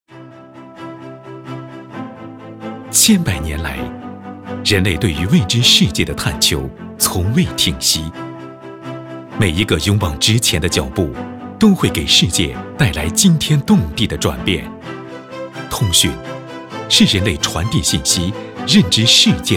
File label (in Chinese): 男 质感宣传; 量子通讯